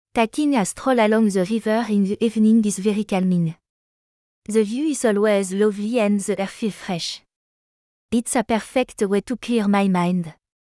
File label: French, english accents; english accents challenge